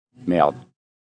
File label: Man "Meud", French guy says